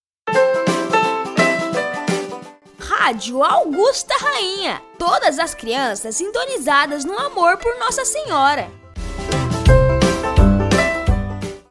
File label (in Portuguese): voz infantil; Rádio Augusta Rainha